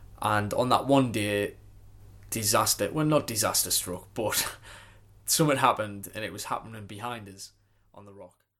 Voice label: COUNTY DURHAM BISHOP AUCKLAND MALE

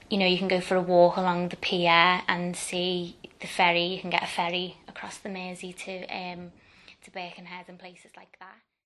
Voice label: LIVERPOOL FEMALE

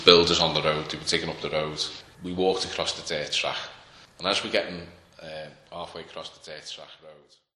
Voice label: LIVERPOOL MALE

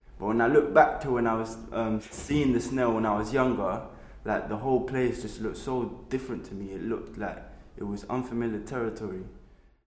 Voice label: NORTH LONDON MALE

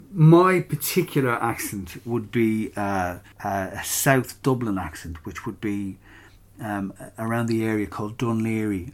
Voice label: DUN LAOGHAIRE MALE

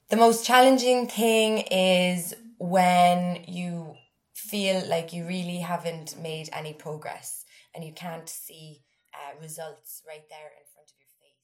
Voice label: Cork female aged 28

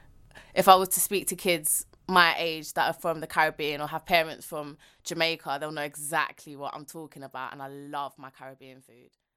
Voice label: EAST LONDON FEMALE

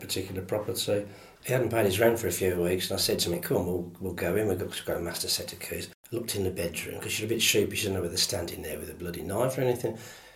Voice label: BIRMINGHAM MALE

